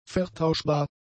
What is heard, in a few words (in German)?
"vertauschbar", German; adjectives